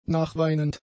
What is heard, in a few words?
"nachweinend", German; adjectives